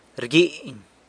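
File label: "rguiny", San Lucas Quiavini Zapotec; borrows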